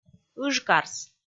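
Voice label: "ыжкарс", Komi, female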